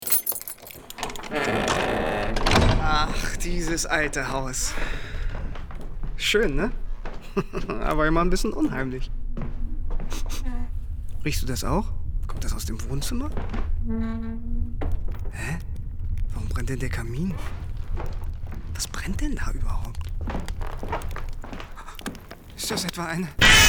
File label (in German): spot; amazon audible